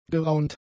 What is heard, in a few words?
"geraunt", German; adjectives